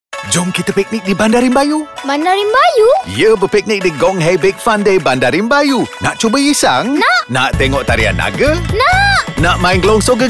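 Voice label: Bahasa Malaysia; RIMBAYU; GONG HEI BIG FUN DAY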